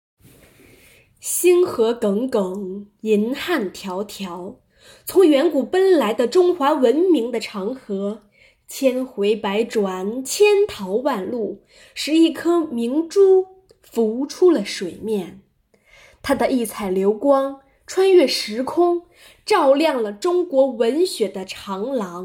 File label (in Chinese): 唐宋诗词歌赋主持词